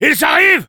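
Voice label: Engineer incoming, French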